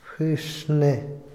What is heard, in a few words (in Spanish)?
"tartamudear", Otomí, hombre; Habla; INALI